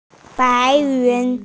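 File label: "white clouds", hearing impaired; bai2yun2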